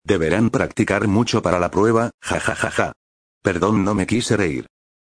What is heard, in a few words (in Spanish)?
voz del narrador